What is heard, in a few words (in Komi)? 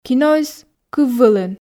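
киноыс кыв вылын, Komi, female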